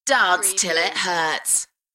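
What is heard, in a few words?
UK female, voice drop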